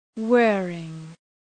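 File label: "whirring", English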